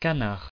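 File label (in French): "canard" French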